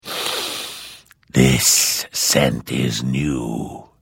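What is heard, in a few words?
Hello, Vo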